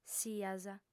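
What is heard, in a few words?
"sias", female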